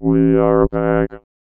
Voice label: VOICEFX ELCTR 140; Techno2